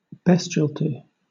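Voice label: "bestiality", British English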